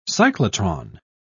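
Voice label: "cyclotron", British English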